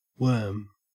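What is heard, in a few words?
worm, Australian English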